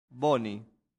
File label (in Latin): "boni", latin; santillana